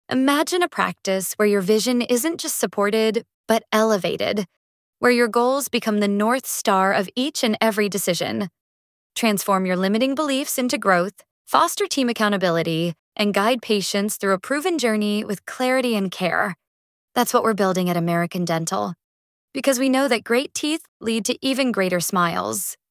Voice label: voiceover samples